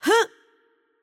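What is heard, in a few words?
Attack Light, Arabic; VO; bloom minigame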